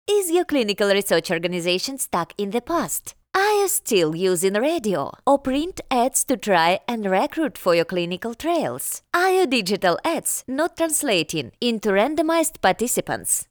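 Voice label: language English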